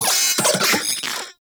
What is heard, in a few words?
Vocal Alerted; Warframe; Corpus; Arisoctrats; Mini Moa